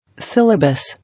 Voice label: "Syllabus", English